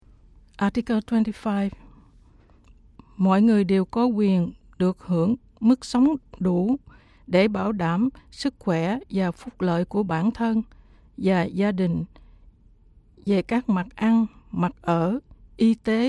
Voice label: Vietnamese

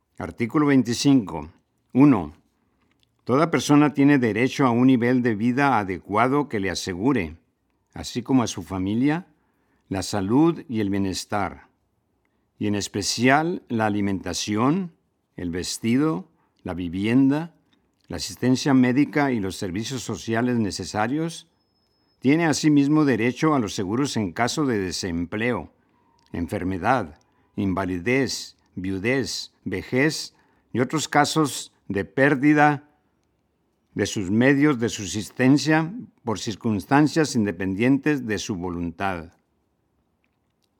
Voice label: Spanish

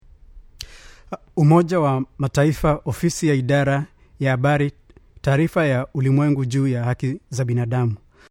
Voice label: Swahili title

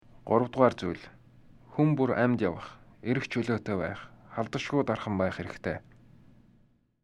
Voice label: Mongolian

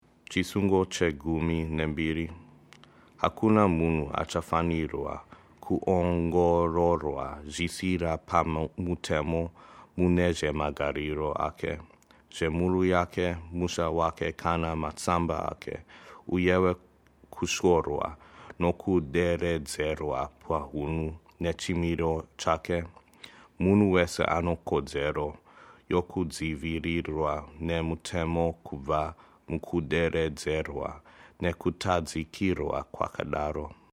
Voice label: Shona

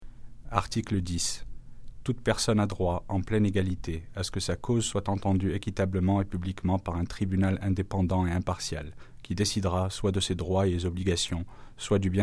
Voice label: French

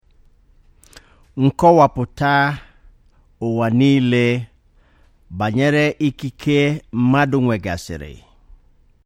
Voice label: Igbo title

